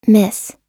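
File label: "miss", American English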